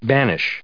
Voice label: "banish", en us